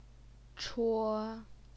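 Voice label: "chuo", first tone, Chinese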